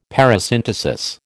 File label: "parasynthesis", American